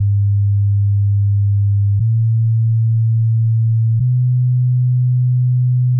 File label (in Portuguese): F0 homens extensao